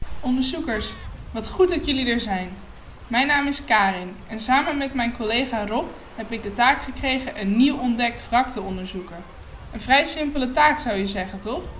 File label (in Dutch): Nieuwe voice over